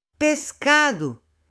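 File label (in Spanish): "pescado" Spanish, male; ALIMENTOS; AppLenguaje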